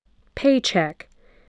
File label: "paycheck", English, voice